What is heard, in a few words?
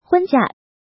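"Hūnjià", Chinese; Tu vung nghi phep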